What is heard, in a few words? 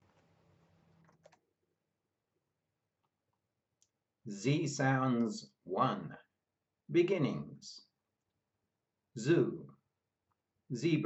Z sounds, pronunciation of australian english